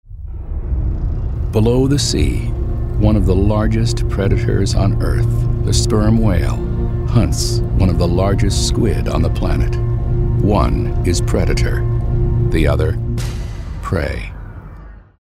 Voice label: soft spoken narrative